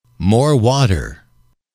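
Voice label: "more water", English, male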